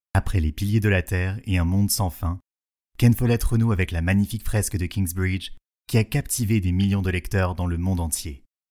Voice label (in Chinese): 法语男, 外语, 小语种